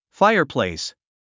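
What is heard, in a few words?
"fireplace", English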